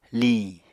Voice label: "liñ", Apatani